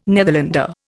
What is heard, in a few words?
"Netherlander", Indian